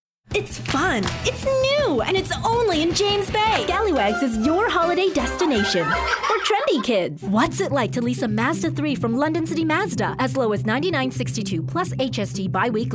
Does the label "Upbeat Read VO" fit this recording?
Yes